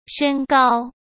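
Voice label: "身高", Mandarin Chinese; chinese-flashcards; hsk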